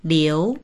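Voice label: "liu2", Mandarin Chinese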